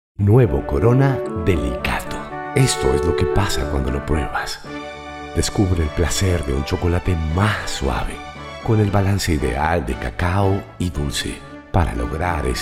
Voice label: spanish latin american promos

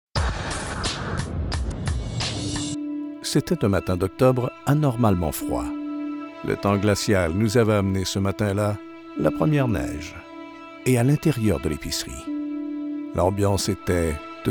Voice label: french canadian documentaries

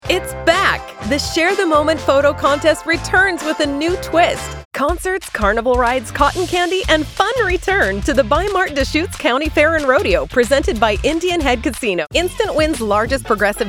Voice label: english canadian promos; Sonderangebote

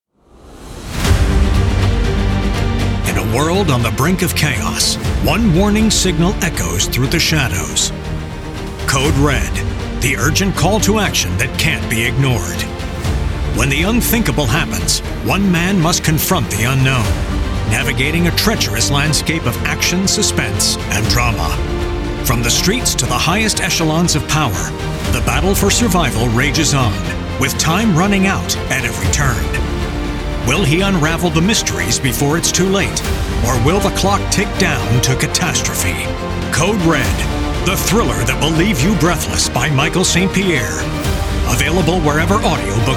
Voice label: english american movie trailers

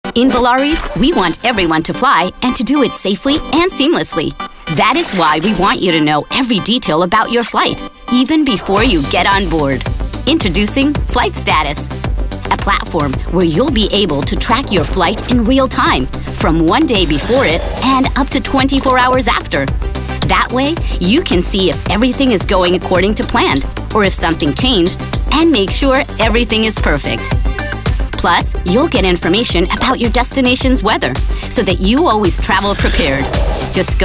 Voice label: English corporate videos; Atriz de dublagem mexicana-americana

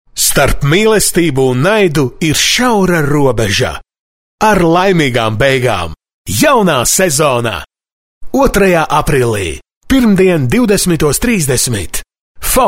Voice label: latvian commercial

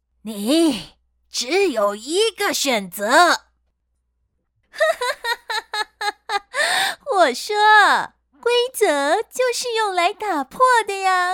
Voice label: chinese mandarin video games

